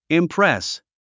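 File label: "impress", English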